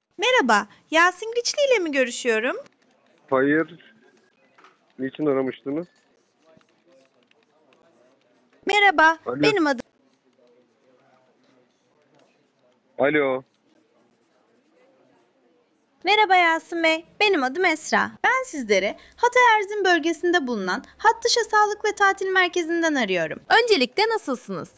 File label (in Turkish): Yapay Zeka Arama, Görüşme Kaydı; Niçin aramıştınız? Alo